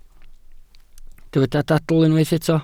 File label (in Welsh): "dadl" Welsh